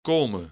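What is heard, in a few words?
"komen", Dutch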